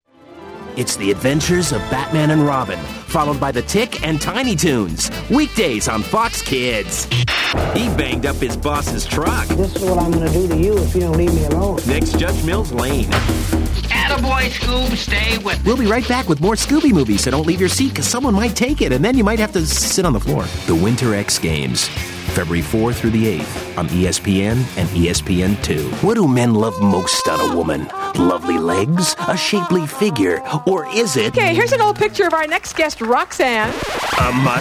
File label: promo male